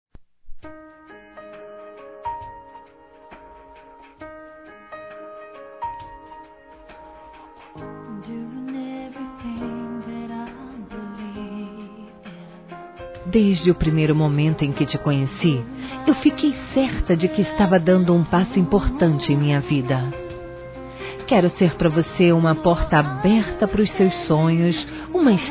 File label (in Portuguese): DECLAR. DE AMOR F; Telemensagens; Declaração de amor; Telemensagens 2017